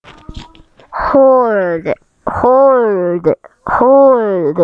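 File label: "hold", spoken word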